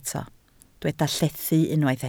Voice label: "llethu", Welsh

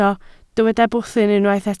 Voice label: "bwthyn", Welsh

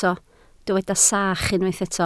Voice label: "sach", Welsh

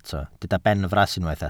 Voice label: "benfras", Welsh

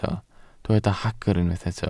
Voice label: "hagr", Welsh

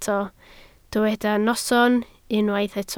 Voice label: "noson", Welsh

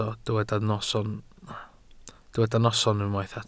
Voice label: "noson", Welsh

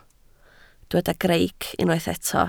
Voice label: "greic", Welsh

